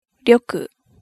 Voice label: "rhoku", hiragana